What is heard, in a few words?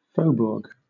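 "faubourg", British English; pronunciations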